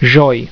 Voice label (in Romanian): "joi", romanian; tijden